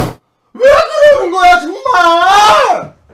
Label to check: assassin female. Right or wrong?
Wrong